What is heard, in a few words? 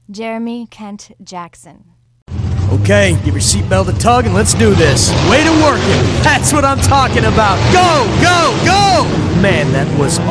animation